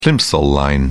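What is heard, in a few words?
"plimsoll line", British English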